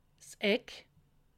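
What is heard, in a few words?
"eck", Alsatian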